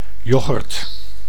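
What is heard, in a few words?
"yoghurt", Dutch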